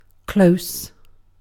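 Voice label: "close", British English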